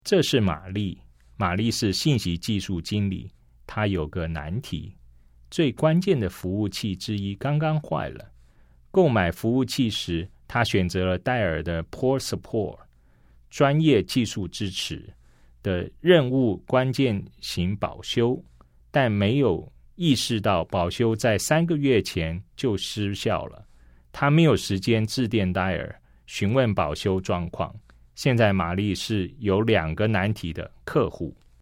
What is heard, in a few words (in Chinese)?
CHINESE